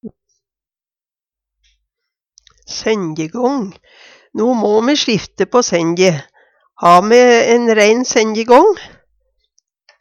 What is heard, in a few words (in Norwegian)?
"senjegang", Numedalsmål; No må me sjifte på senje. Har me ein rein senjegång?